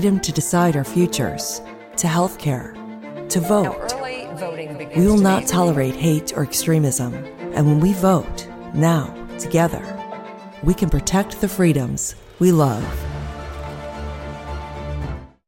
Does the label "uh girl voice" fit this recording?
No